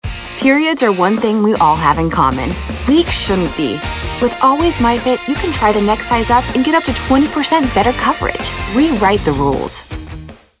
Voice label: Young Energetic; Always